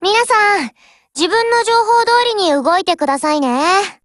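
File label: BLACK ACTION Japanese, Voice; GF Alarm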